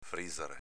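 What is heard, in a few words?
"frizare", Venetian dialect; v. friggere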